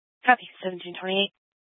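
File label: Police Dispatch, scanner audio; Police 1 Dispatch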